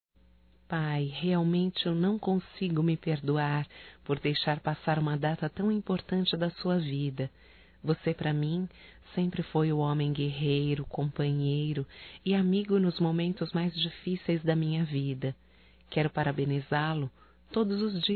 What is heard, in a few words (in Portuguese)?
Aniversário Feminino, Telemensagens; Aniversário Atrasado Para Pai Feminino